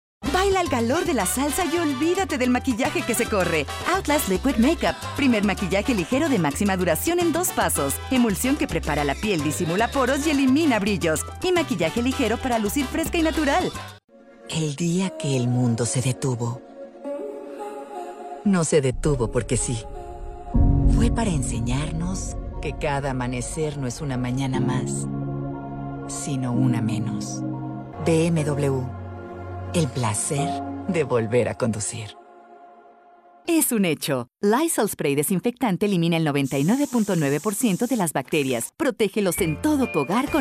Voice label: Voice Actor; BigMouthVoices